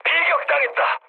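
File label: Korean aircraft damaged mood medium; speech; War Thunder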